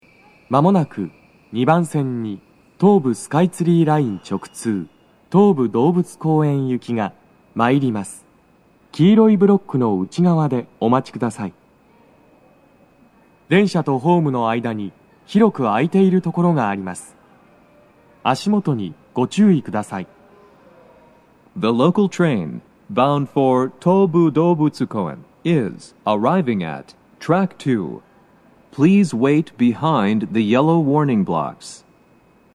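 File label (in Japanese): 接近放送, 男声, 六本木駅; 放送は「東武動物公園行」です。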